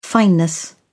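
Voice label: "fineness", British English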